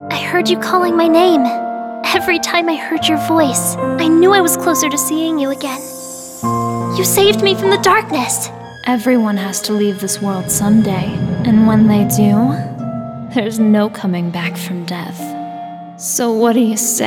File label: Character Reel